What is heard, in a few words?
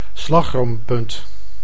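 "slagroompunt", Dutch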